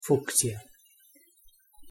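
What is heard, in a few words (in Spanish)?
"fucsia" Spanish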